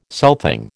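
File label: "southing", American English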